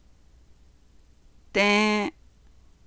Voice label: from a general location or point in space or time, Navajo